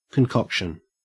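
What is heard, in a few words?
"concoction", Australian English; pronunciations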